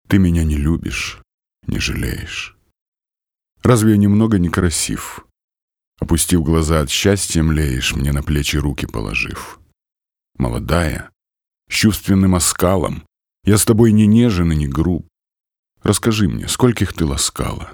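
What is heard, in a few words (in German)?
Russian, Schauspiel Sanft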